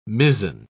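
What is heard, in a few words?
"mizen", British English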